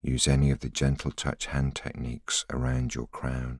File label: voiceover